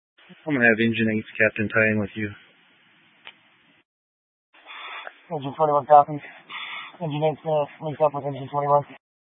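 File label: Incident Tactical, scanner audio recording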